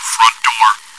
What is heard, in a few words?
chitchat, bot, voice commands; svencoop